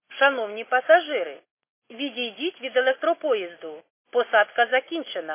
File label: khs st female, subway station